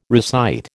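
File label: "recite", American English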